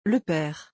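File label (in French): "Le pere", French; vocabulaire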